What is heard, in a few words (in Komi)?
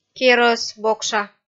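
"керӧс бокша", Komi, female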